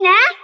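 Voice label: "na" fast, Japanese; Heaven Studio Plus; fan Club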